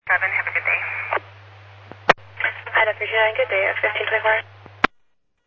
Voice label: ADOT DPS Districts, scanner